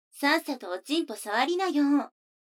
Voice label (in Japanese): 女の子台詞, ASMR